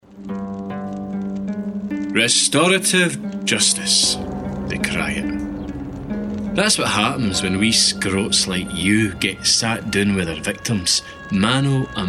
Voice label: Narration; Restorativejustice; Vocal Point